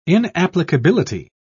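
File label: "inapplicability", British English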